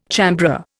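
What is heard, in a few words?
"chambré", Indian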